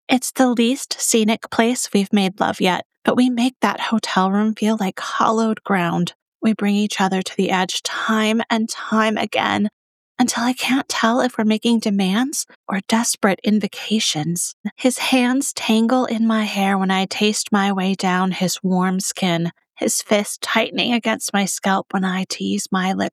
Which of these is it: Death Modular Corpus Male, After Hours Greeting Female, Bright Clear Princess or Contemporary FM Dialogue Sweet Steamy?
Contemporary FM Dialogue Sweet Steamy